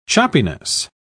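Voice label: "choppiness", British English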